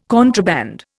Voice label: "contraband", Indian